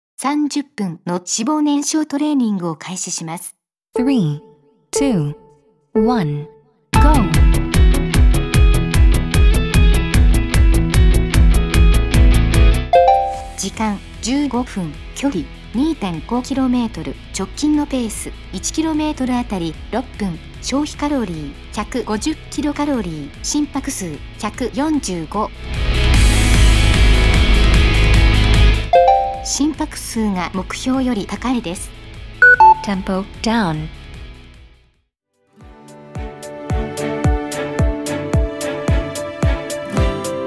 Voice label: JP Native